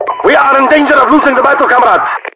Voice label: losing, voiceovers english